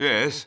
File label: Male; baldurs1